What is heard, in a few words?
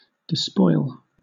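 "despoil", British English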